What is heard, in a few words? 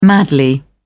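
"madly", British English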